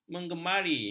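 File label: "menggemari", Malay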